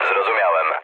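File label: Polish chat; War Thunder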